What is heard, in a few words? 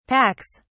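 "packs", British English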